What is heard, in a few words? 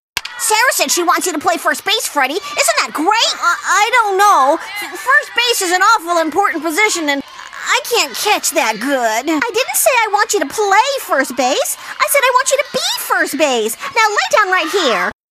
kids baseball game